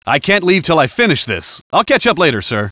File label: repair guy; gearbox; gunman